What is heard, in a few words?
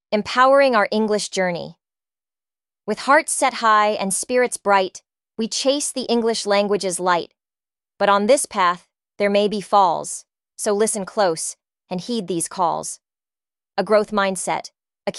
Poem; Empowering Our English Journey